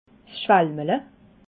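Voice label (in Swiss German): "schwalmele", Alsatian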